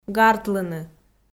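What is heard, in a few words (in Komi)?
"гартлыны", Komi-Zyrian, female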